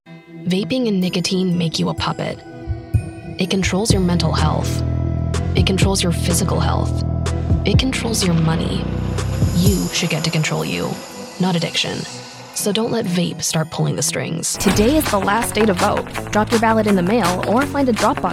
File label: PSA, female